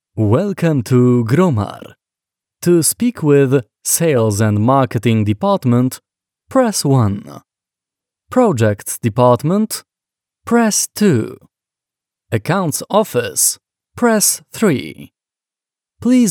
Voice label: IVR, ENG; Gromar